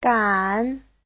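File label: "gǎn", Mandarin Chinese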